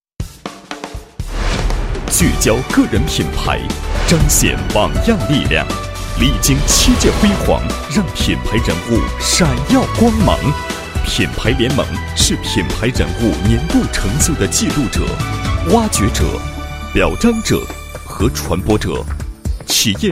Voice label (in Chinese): 男4, 国语男声配音, 男04大气专题; 2013品牌中国年度人物